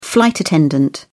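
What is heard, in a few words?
"flight attendant", British English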